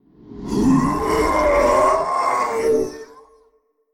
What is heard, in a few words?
Male Faceless Death